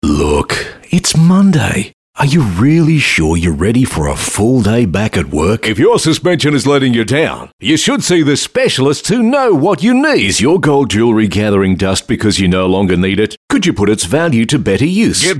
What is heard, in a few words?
Soft Sell